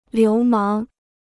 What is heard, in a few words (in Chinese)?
"流氓", Chinese; liú máng; rogue; hoodlum